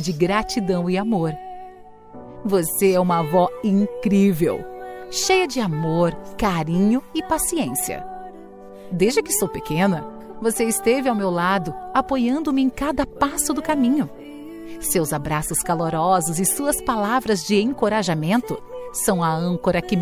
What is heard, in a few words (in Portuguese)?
dia avo fem, dia dos avos para avo voz feminina